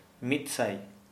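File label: Santali, male, one hundred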